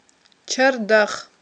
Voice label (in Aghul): "чардах", Aghul